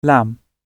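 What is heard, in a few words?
"lamb", British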